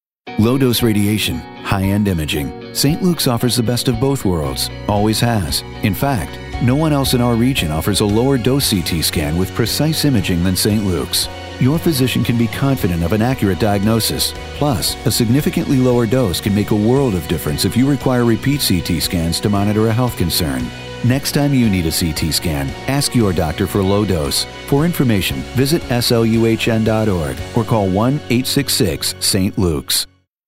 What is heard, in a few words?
Informative